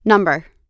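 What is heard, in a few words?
"number", US English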